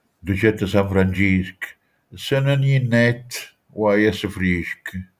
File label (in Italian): "francesco", manfredoniano